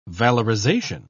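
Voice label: "valorization", British English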